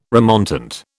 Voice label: "remontant", British

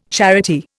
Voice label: "charity", Indian

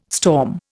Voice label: "storm", Indian

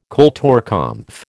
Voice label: "kulturkampf", American

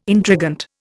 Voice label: "intrigant", Indian

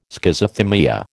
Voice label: "Schizothymia", American

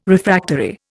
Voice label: "Refractory", Indian

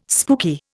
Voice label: "spooky", Indian

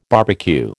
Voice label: "barbecue", American

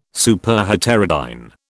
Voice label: "Superheterodyne", British

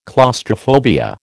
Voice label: "claustrophobia", American

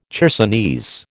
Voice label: "chersonese", American

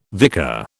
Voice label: "vicar", British

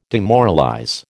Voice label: "demoralize", American

